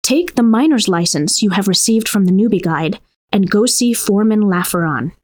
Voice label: tutorial voice; lineage2